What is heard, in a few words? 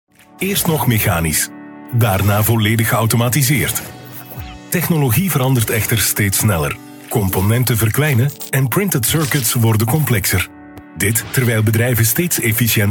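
Flemish, male, corporate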